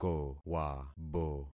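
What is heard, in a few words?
"kowabo", concatenated syllables; Affixing experiment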